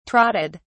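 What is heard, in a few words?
"trotted", British English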